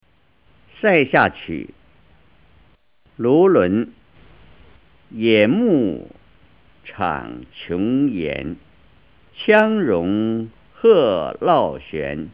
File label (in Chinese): 唐诗 朗读; 唐诗三百首; 夏青; 卢纶